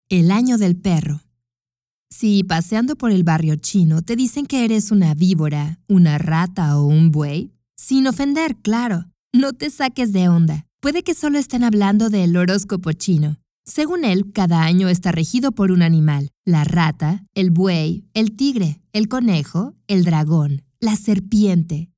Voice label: AUDIOGUIDE

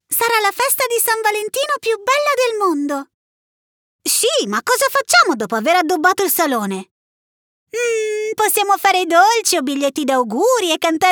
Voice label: cartoon

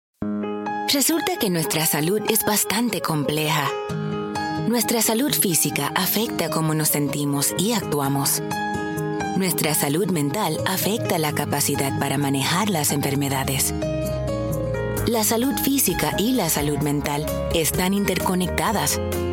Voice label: Corporate E learning Spanish

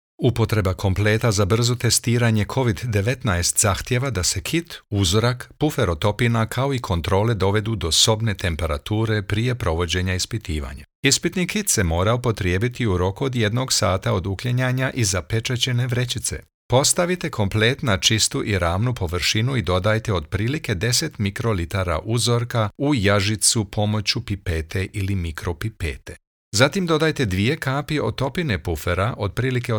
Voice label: BOSNIAN med; covid-19

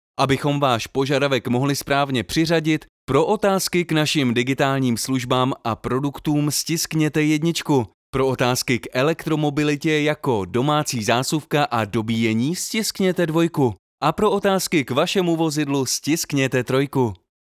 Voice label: IVR

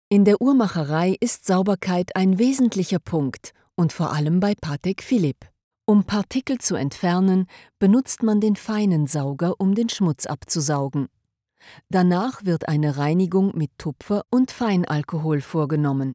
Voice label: Industriel DE; PatekPhilippe

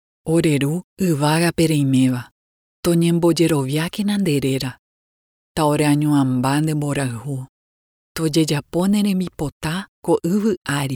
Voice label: Guarani; Ore ru

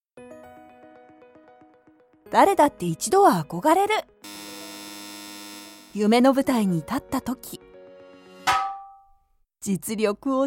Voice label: Movie trailers

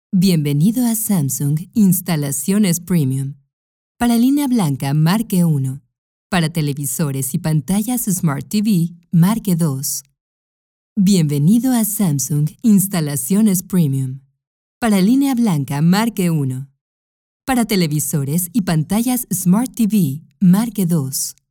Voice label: IVR WELCOME MESSAGE

